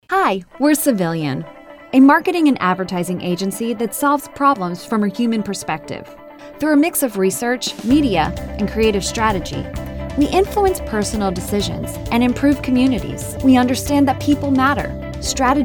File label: Warm Friendly VO